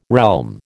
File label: "realm", American